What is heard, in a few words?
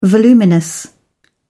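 "voluminous", British English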